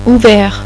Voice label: "outgoing" "ouvert", French; Personality